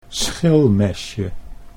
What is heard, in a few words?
"schilmesje", Dutch